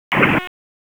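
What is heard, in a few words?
EMS Dispatch, p25; Wake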